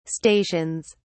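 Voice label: "stations", British English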